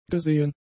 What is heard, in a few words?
"gesehen", German; adjectives